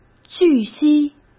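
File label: "据悉", Mandarin Chinese; hsk; chinese-flashcards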